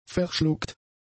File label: "verschluckt", German; adjectives